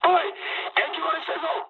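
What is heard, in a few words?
damaged water mood high, speech japanese; War Thunder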